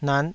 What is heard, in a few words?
"nat5", taiwanese